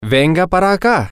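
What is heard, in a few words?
come here, Spanish, male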